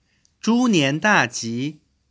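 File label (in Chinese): zhunian daji, male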